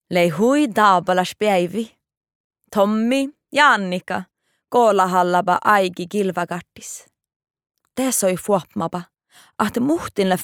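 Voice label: no, Norwegian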